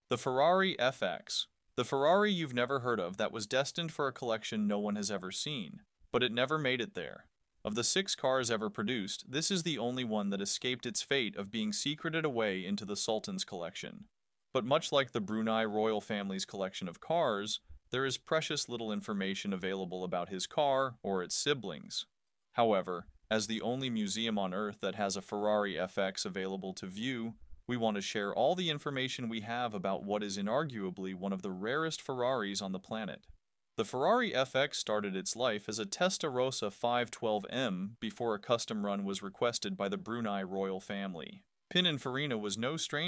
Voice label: Voiceover; FerrariFX